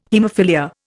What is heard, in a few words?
"haemophilia", Indian